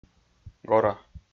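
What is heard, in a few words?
"гора", Komi, male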